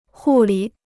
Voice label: "护理", Chinese

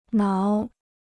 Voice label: "挠", Chinese

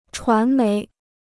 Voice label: "传媒", Chinese

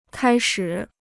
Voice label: "开始", Chinese

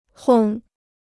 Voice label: "烘", Chinese

